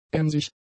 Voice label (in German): "emsig", german; adjectives